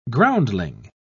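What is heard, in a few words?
"groundling", British English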